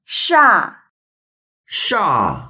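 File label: "sha4", Mandarin Chinese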